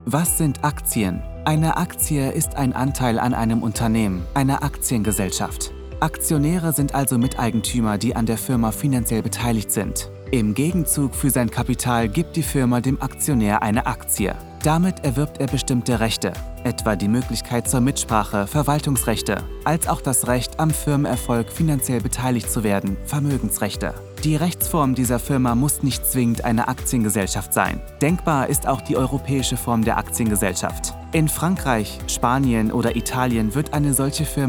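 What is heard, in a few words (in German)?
Erklärfilm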